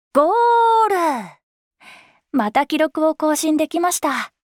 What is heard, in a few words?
Voice victory